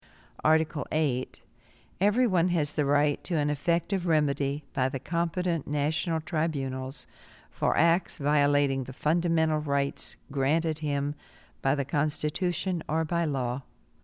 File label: English